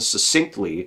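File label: "succinctly", US English; pronunciations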